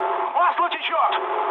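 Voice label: Russian damaged oil mood med, speech; War Thunder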